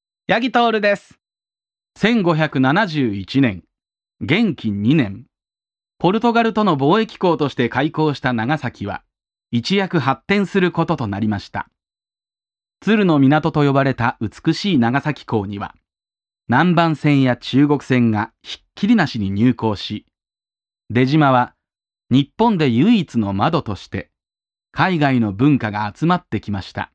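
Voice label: Japanese, male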